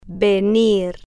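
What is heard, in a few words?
"vender", Spanish, female, slow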